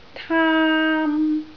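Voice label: "taam1", Cantonese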